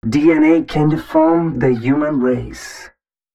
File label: male, Dance spoken hooks